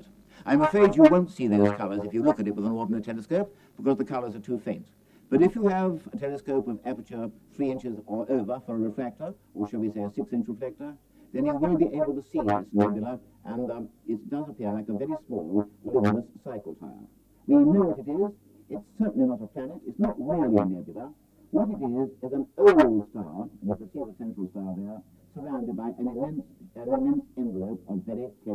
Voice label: through a trumpet; Patrick Moore